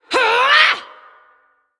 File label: Female Vrykul Attack